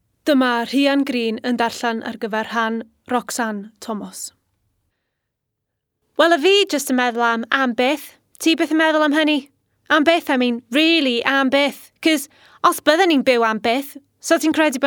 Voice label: welsh